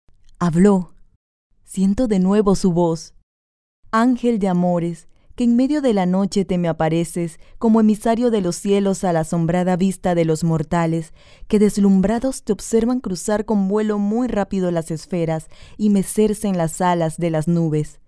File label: locutora panama panamanian voiceover